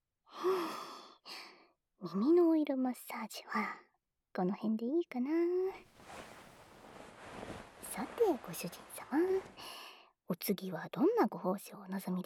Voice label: ゾクゾク 体の オイルマッサージ ＋ ささやき、オノマトペ

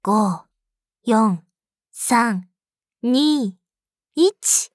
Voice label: count, 射精前カウントダウンボイス

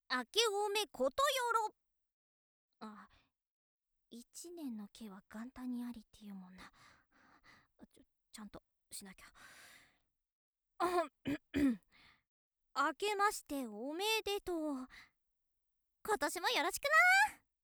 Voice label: 元気JK お正月だよ

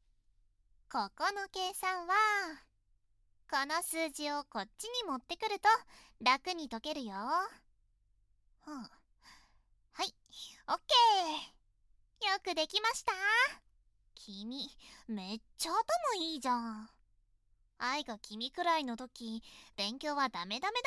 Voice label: ご褒美フェラ（左）, ASMR

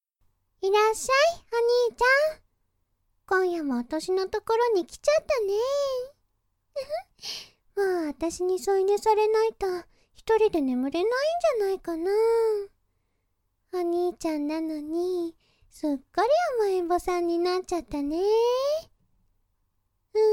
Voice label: 添い寝; 妹ver

